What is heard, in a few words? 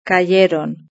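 "cayeron", Spanish; verb-conjugator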